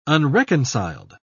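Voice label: "unreconciled", British English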